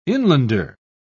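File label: "inlander", British English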